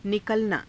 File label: "nikalanaa", Hindi; [自動]判明する，出る，出版される; निकलना